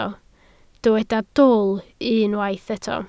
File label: "dwl", Welsh